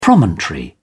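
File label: "promontory", British English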